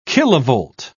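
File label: "kilovolt", British English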